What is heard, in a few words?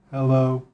Clean Hello